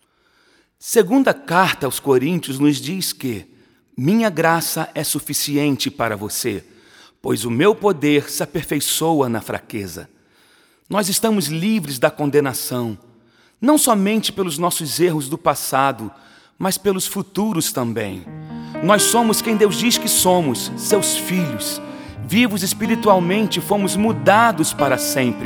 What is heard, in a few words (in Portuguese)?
Narração